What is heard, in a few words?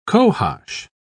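"cohosh", British English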